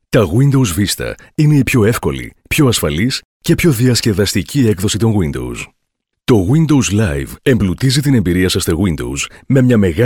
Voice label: greek male; dictor